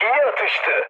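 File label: Turkish player killed enemy, mood med, speech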